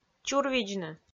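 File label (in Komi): "чурвидзны", Komi, female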